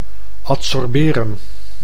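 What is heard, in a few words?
"adsorberen", Dutch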